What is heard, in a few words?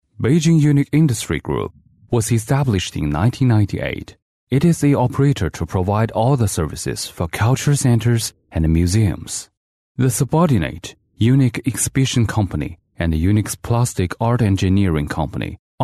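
Mandarin, male, English Sample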